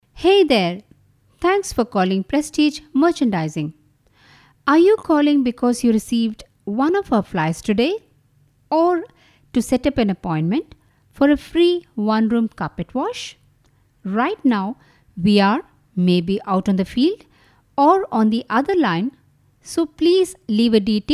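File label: Indian English, female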